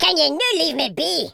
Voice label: "leave me alone", Scotsman; speech